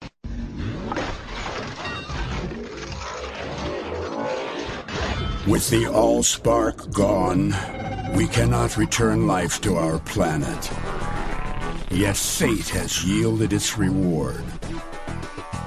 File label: Animation, voice actor